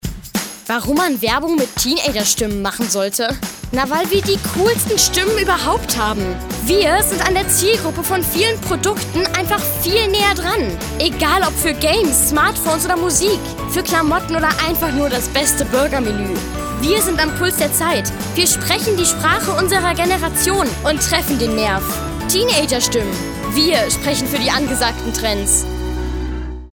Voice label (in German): Werbung